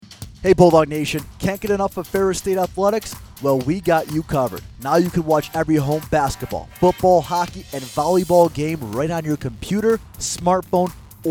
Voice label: Commercial; athletics podcast